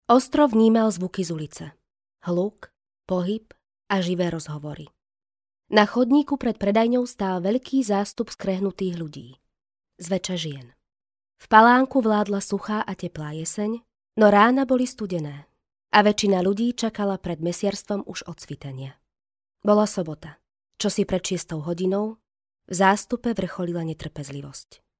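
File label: Slovak, female, narration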